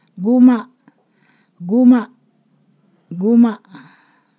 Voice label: "sheath", Ponosakan